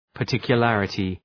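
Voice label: "particularity", English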